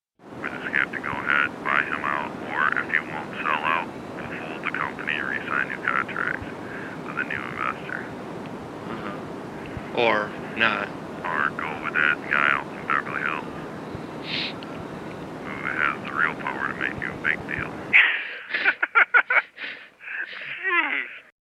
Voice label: Phone Call; Rollinghead